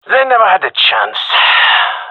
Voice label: round won, gendarmerie male; vo